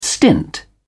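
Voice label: "stint", English, British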